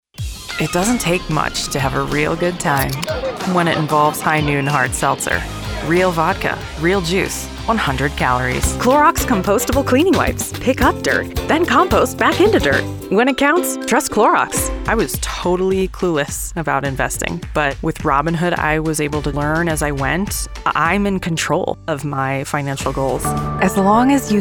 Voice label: Female, commercial